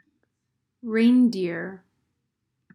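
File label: "Reindeer", English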